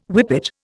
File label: "whippet", Indian